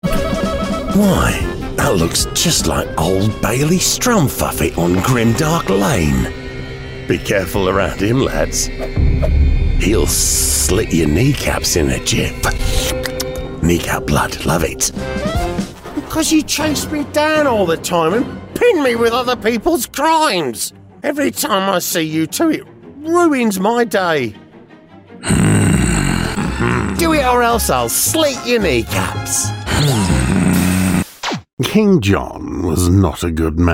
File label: english scottish animation